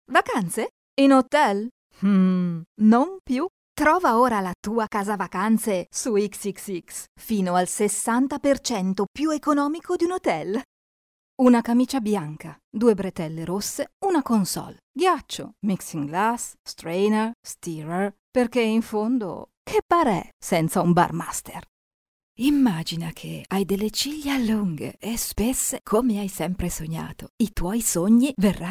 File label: female italian voice over sales